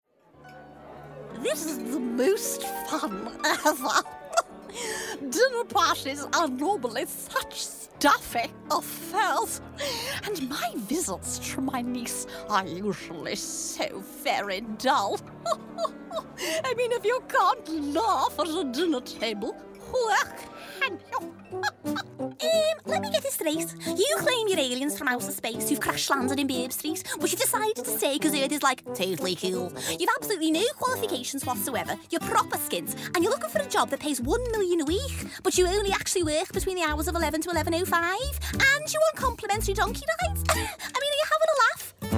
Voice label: VO Character Animation